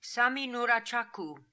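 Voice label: "Sami Noora Chakoo", pronunciations; Fourth Way; Beelzebub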